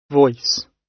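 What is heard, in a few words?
"voice", British English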